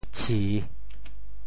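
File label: "tshi3", Teochew; 潮州; 白刺虾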